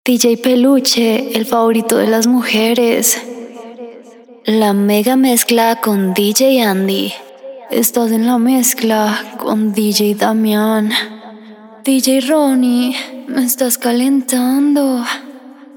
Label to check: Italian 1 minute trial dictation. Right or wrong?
Wrong